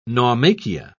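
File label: "naumachia", British English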